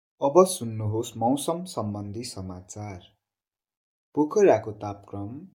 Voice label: nepali radio newsreader; grade1; communication